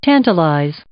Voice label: "tantalize", English

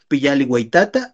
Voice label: "piyalli ueyitata", Náhuatl; hola abuelo; hello grandfather

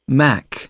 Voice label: "mack", English; noun

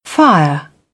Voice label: "fire", British; AmericanBritish

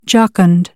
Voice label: "jocund", American English; ɑː - ɒ

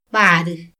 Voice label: "baru̷", Mazahua; INALI; AprendeMazahua

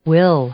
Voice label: "will", English; verb; Ingles; i-ɪ

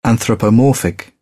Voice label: "anthropomorphic", British; ɔːr - ɔː; English

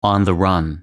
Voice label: "on the run" idiom

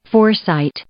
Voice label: "foresight", English

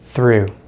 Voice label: "through", American English; easypronunciation

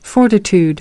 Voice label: "fortitude", American; AmericanBritish

